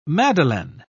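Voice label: "madeleine", British English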